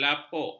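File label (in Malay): "lapuk", Malay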